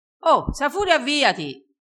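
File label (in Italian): "se ha furia", dialettale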